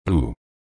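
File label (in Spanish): "plu", Spanish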